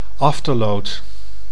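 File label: "afterload", Dutch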